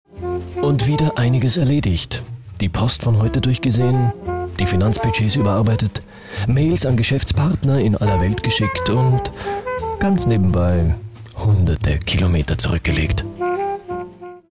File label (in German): Mann